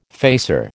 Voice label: "facer", American

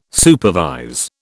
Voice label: "supervise", British

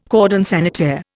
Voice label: "cordon sanitaire", Indian

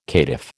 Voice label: "caitiff", American

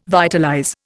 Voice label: "vitalize", Indian

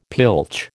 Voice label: "pilch", American

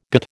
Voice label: "gîte", American

